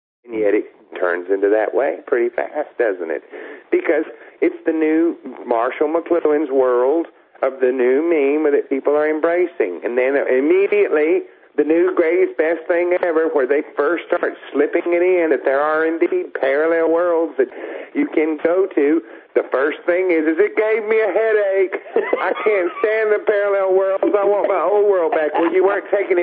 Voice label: rant